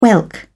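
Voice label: "whelk", British English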